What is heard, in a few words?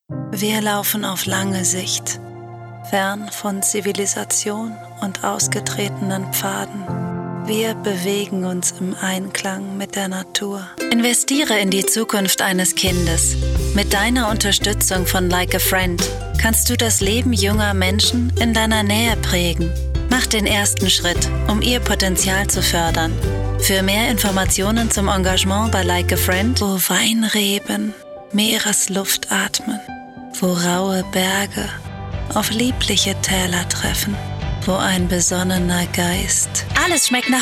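German Commercial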